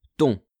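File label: "ton", French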